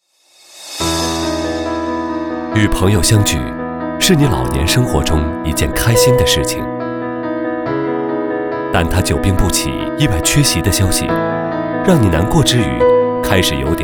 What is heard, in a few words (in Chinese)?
男 广告 三减